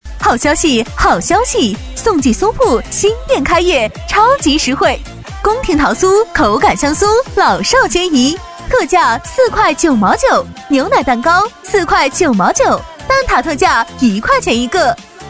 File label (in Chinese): 女声; 宋记酥铺